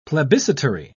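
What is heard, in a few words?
"plebiscitary", British English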